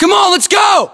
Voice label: order move generic, US; localized english; battlechatter